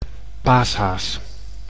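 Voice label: "paashaas", Dutch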